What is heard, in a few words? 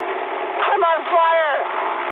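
English on fire mood high; War Thunder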